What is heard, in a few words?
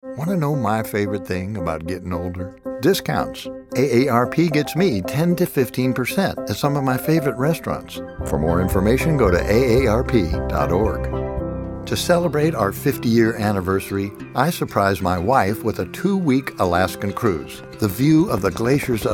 Commercial